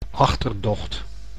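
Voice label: "achterdocht", Dutch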